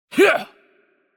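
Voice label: Chinese attack heavy voice; bloom minigame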